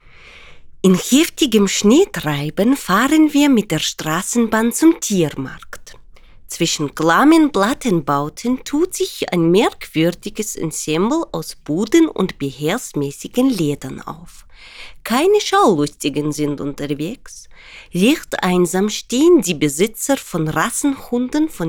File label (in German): Russisches Dialekt, sprecherin